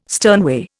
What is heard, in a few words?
"sternway", Indian